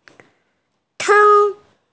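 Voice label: "cu1", Mandarin Chinese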